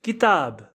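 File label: "book", English; grade1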